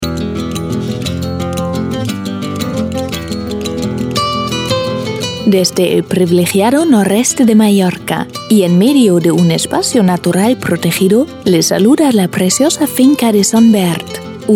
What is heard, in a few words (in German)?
imagefilm spanisch; finca